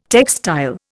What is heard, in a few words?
"textile", Indian